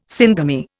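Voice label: "syngamy", Indian English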